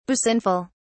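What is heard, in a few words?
"basinful", British English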